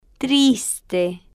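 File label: "triste", slow, Spanish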